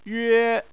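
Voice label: "yue", Mandarin Chinese, first tone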